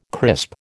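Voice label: "crisp", American English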